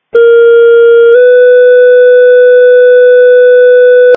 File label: EMS Dispatch